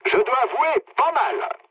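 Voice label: French trick mood low; War Thunder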